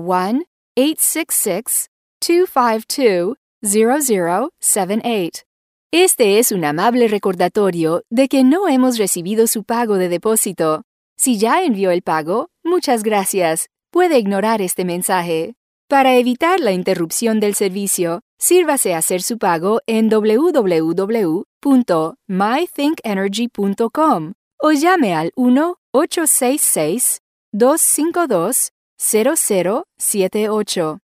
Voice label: outbound payment not received bilingual